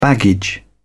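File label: "baggage", British English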